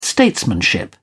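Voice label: "statesmanship", British English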